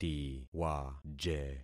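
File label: "tiwaje", concatenated syllables; Affixing experiment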